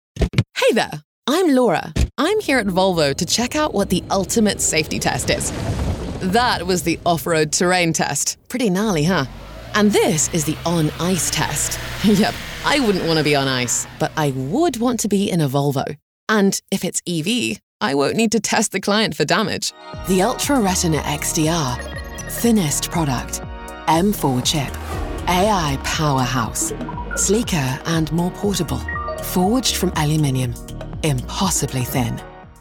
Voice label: british narration; Deep, smooth, dynamic, versatile, warm, maternal, rich, and authentic.; I work professionally in multiple accents

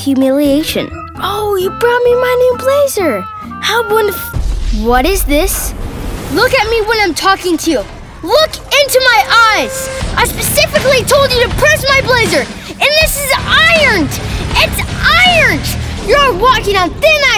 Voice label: kids animation

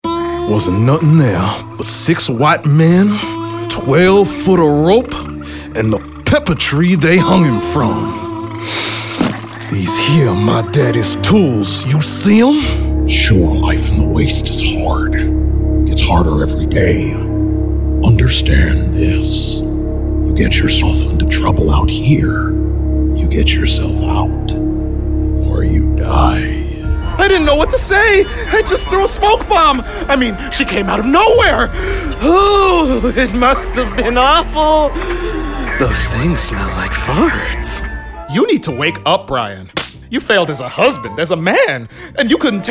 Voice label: men animation

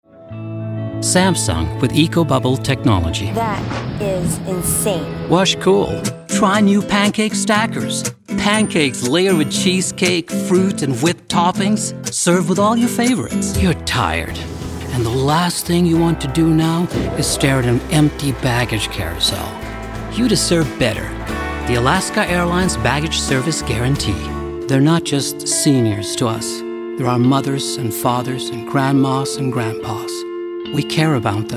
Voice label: men commercial; Well-spoken and continental.

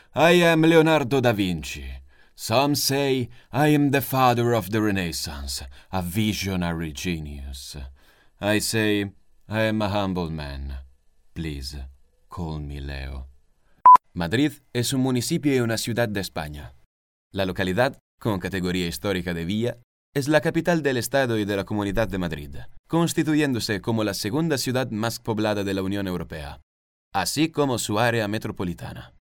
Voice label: italian accented english

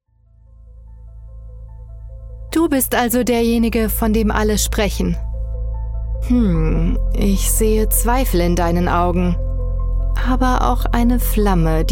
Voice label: german video games; Warm, soft, confidence-inspiring, clear voice.

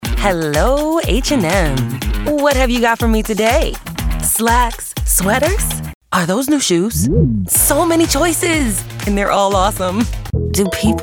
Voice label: african american commercial; AFRICAN AMERICAN » Warm, friendly, confident, and raspy. Real, relatable, hip, Millennial.

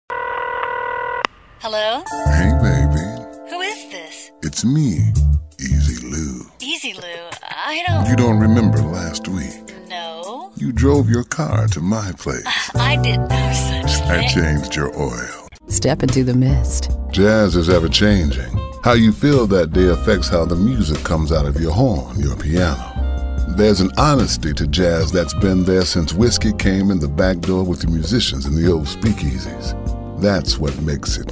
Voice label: african american commercial; He's deep, soulful, and incredibly cool; bluesy sound

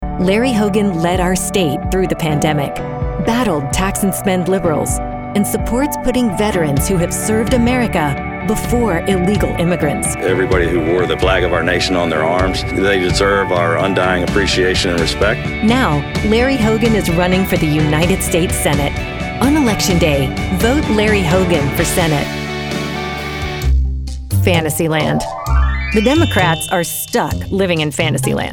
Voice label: women political

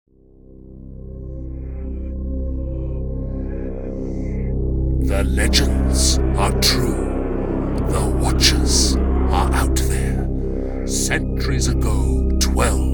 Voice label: men animation; Delightful British talent with class. Fantastic narrator. Multiple dialects.

